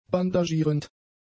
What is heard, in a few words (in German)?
"bandagierend", German; adjectives